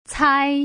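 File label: "cai", Mandarin Chinese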